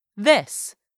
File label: "this", english; 3000 words